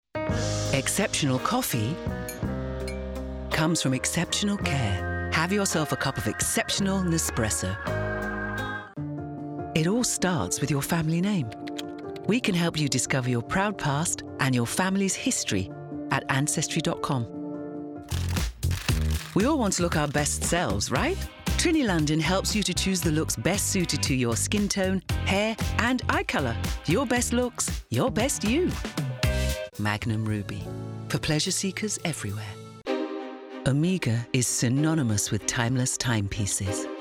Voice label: Commercials